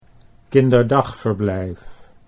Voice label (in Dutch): "kinderdagverblijf", Dutch